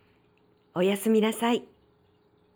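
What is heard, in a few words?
"oyasuminasai", Japanese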